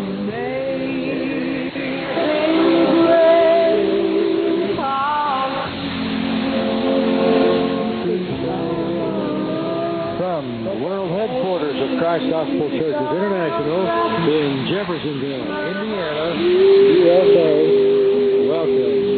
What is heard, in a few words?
bible voice